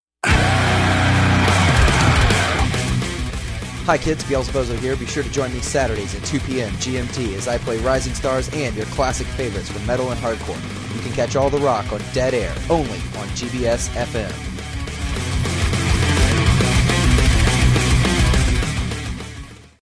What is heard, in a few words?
Dead Air Promo